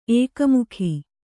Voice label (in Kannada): "ಏಕಮುಖಿ", Kannada